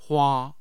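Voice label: "hua", Mandarin Chinese, tone 1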